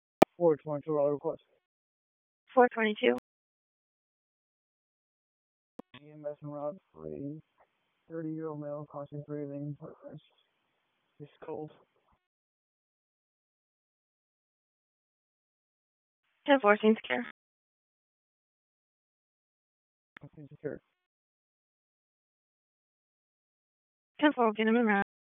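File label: Raleigh Police Southeast District Dispatch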